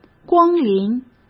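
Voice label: "光临", Mandarin Chinese; hsk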